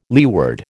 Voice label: "leeward", American

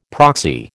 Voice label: "proxy", American

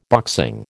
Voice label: "boxing", American

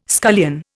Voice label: "scullion", Indian

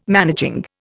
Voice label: "managing", Indian

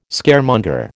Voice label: "Scaremonger", American

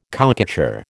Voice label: "cowcatcher", American

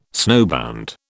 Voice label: "snowbound", British

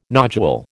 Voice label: "nodule", American

